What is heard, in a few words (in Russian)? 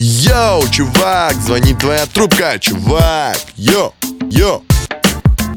Прикольный звонок - Йоу, чувак, звонит твоя трубка